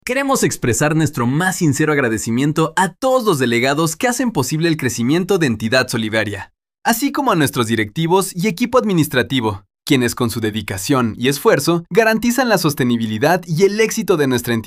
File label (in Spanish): masculino